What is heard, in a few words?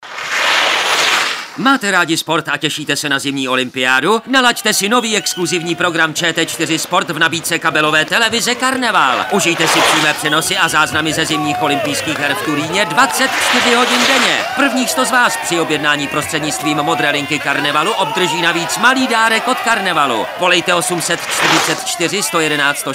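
Male Czech commercial mix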